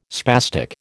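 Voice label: "spastic", American English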